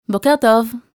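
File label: Good Morning, Hebrew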